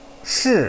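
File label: "shi4", Chinese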